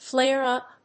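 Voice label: "flare-up", English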